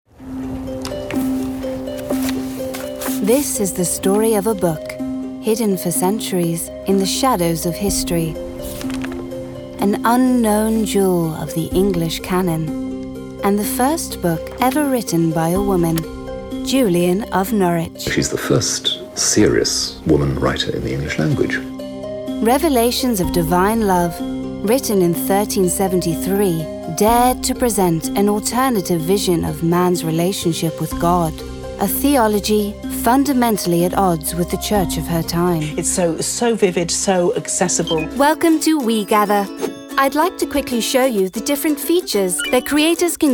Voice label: narrative montage

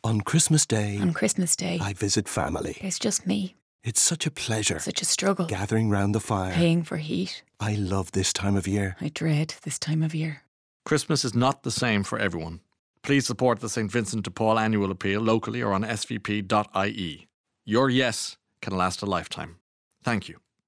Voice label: Christmas Ad; SVP

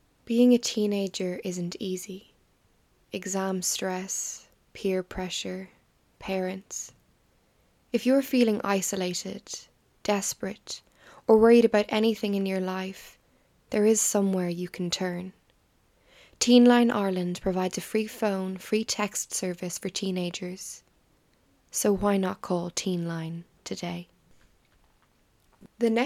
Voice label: English Voice

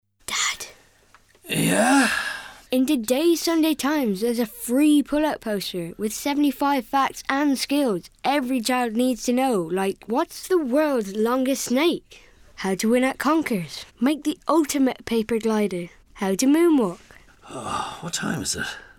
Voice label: Commercial

